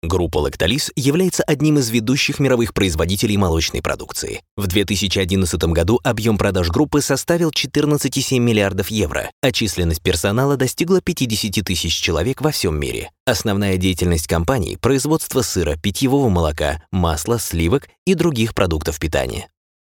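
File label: solid dinamika, Russian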